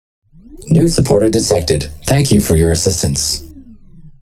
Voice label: male supporter; spark